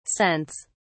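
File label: "scents", British English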